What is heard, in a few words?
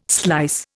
"slice", Indian